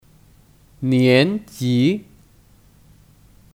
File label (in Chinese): "年级", Niánjí; (Kata benda): Tingkatan/ grade